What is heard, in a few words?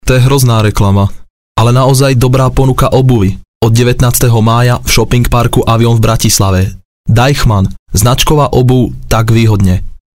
Slovak